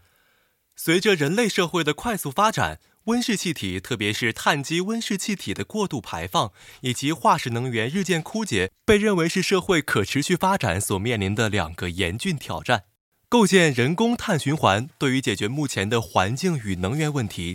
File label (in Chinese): 碳循环科普视频配音